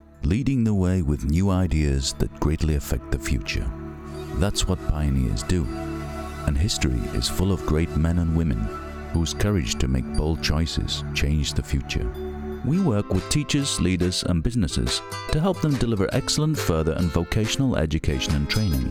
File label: voice over; English (British)